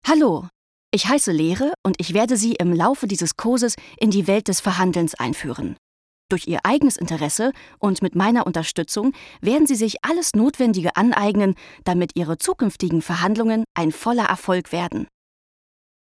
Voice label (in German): elearning, deutsch frauen